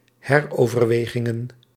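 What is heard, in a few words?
"heroverwegingen", Dutch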